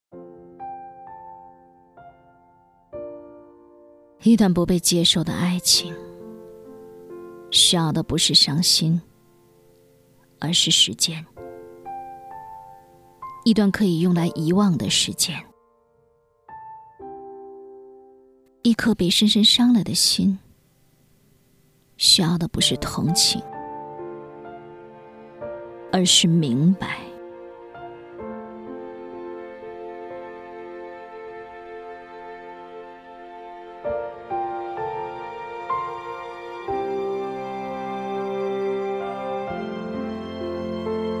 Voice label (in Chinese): 旁白